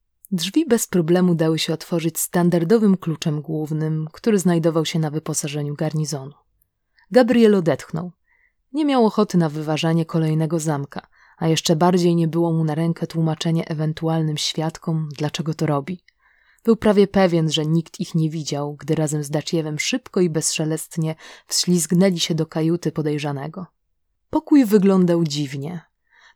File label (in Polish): Audiobook Probka glosu Lektorka